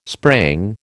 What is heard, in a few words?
"sprang", American